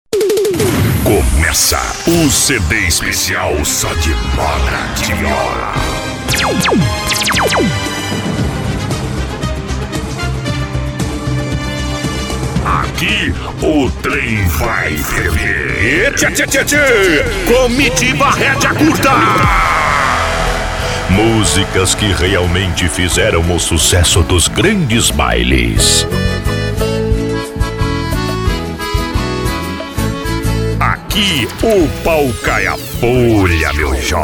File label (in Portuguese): Locutor, Rodeio; Redea Curta